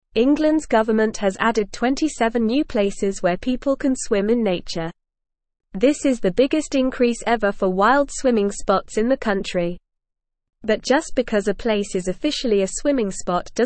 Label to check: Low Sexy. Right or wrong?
Wrong